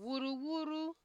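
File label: "wuruwuru", Nigerian Pidgin, female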